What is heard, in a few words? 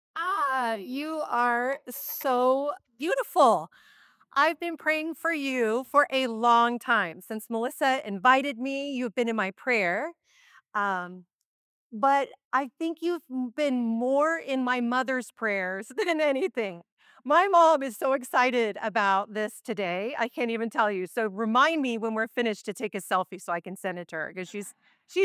Keynote; 2024 Speaker Talks; conference